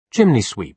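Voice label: "chimney sweep" British English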